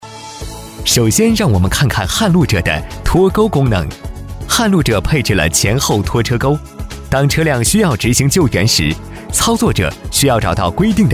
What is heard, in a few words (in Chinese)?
男, 科技解说; 撼路者